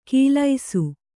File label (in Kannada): "ಕೀಲೈಸು", Kannada